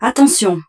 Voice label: French, Caution

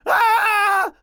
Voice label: Enemy Generic Human Spawn Disbelief

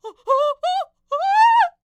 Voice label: Enemy Generic Human Spawn Disbelief

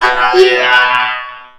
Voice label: Male Die Robot; Mars Sortie

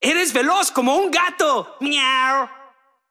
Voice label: Extreme Positive

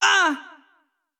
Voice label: Extreme Yeow

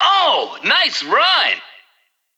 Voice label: Extreme Positive

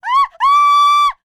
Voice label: Enemy Generic Human Spawn Disbelief

